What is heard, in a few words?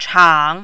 "chang3", mandarin